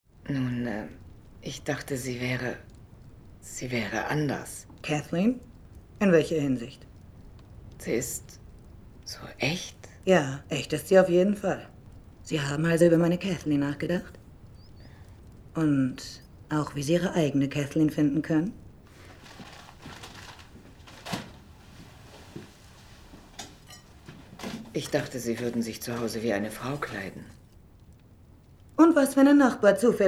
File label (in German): Sprecherin; FILM-SYNCHRO